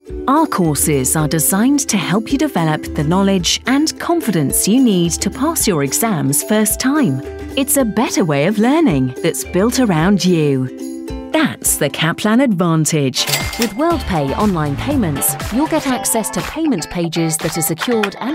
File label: CORPORATE